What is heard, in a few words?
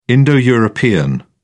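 "indo european", British English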